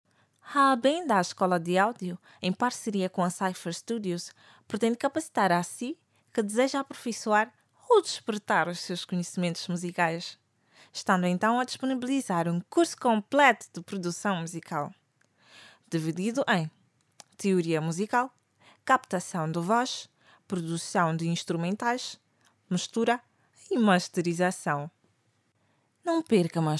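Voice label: Portuguese Mozambique female narrative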